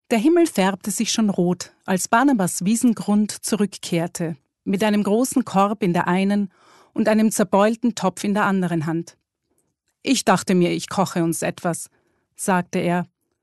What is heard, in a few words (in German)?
HOERBUCH